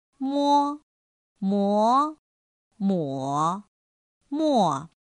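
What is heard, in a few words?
mō mó mǒ mò, Mandarin Chinese; pinyin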